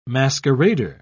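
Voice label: "masquerader", British English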